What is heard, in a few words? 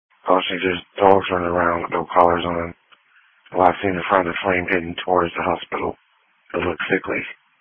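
Police Patrol (Dispatch); New Bern Police Patrol 1 (Dispatch) (TG 200)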